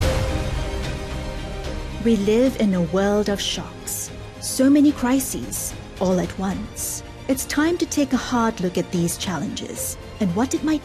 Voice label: english singapore movie trailers